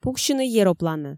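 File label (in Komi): пуксьыны еропланӧ, Komi, female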